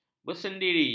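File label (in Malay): "bersendiri", Malay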